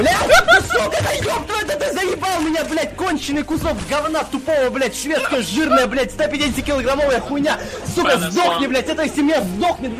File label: russian swearing